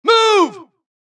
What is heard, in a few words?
supress, dialogue Allies; mohaa; Mission 2